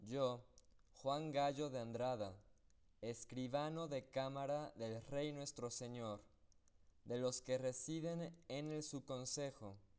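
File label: male, instantaneous; SiSEC11; underdetermined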